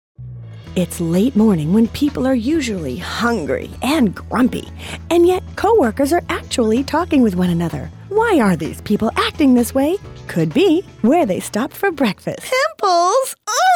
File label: commercials